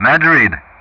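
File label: "Madrid", English; Ka-50; Player Callsign; DCS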